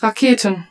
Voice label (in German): German - Rockets; Lander